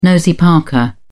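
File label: "nosy-parker", British English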